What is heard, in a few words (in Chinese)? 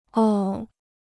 "哦", Chinese